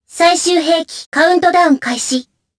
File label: Vox Skill jp; Kara; kings raid